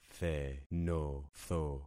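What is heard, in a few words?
"thenotho", concatenated syllables; Affixing experiment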